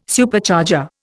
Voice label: "Supercharger", Indian